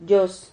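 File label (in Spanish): "llos", Spanish syllable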